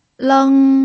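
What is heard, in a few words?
"leng", Mandarin Chinese pinyin, tone 1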